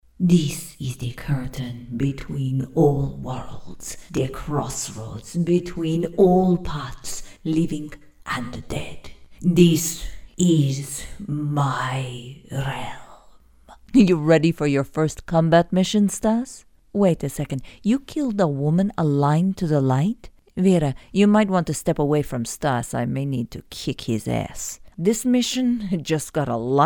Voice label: Russian